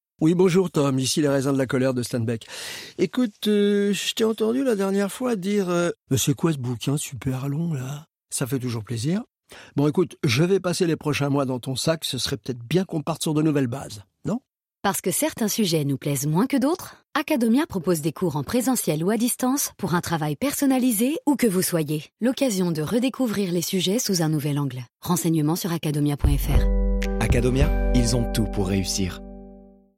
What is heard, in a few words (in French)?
Radio FRANCAIS; ACADOMIA; steinbeck desamour